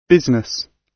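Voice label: "business", British English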